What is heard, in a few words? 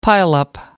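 "pileup", British English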